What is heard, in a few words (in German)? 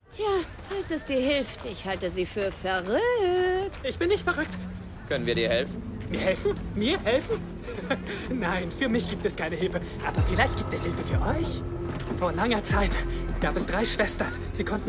synchron; lost girl